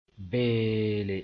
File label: "beele", Mooré